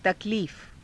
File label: "takaliifa", Hindi